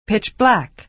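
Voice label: "pitch-black", American English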